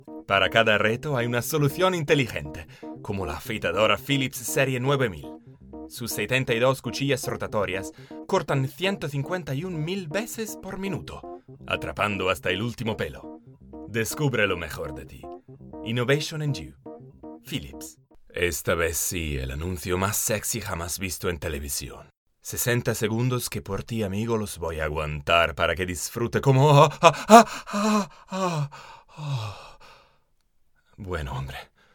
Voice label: Spanish